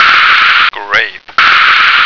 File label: "grape", American